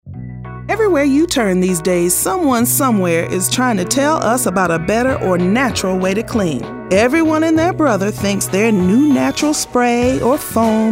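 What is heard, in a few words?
real people urban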